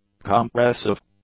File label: "compressive", English; adjectives